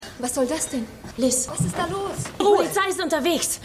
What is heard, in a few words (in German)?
Frau; The Fall